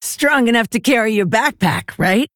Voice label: Female Orc In Game; Rokara; If Rokara Played